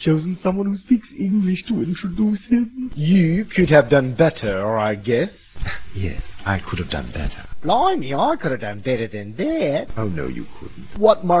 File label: voice demo